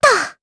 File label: Landing, jp; kingsraid